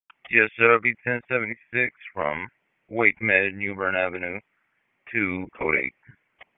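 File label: Sheriff's Office Dispatch; WSO DISP 3; wake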